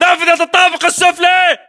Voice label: location first floor window, battle chatter, english